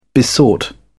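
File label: "besought", British English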